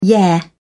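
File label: "yeah", British English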